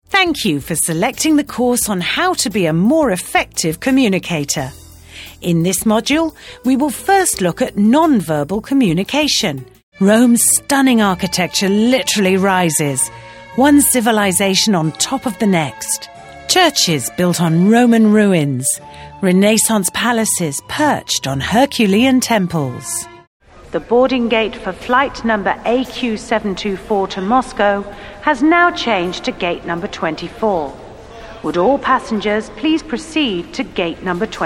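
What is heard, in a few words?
British English, narration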